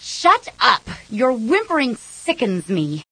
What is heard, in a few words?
Whimpering Sickens Me; Zondra